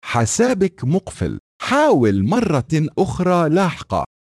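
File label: Account Locked Try Later, Arabic; AXEFIAudio; Open Core EFI AMD Aorus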